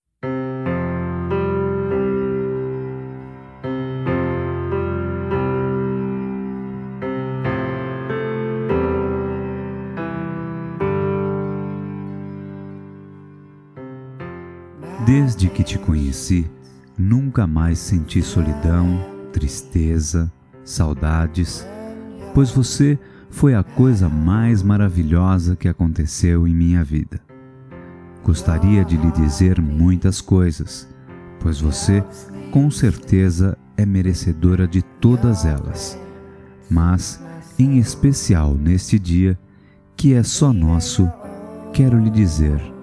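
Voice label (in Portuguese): DIA DOS NAMORADOS, Masculino; Telemensagens; Telemensagens 2017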